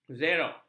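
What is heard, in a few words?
"zerox", Malay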